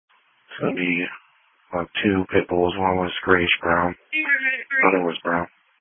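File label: Police Patrol (Dispatch); New Bern Police Patrol 1 (Dispatch) (TG 200)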